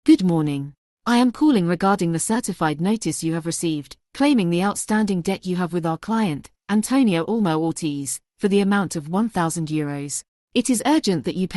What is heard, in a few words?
audio call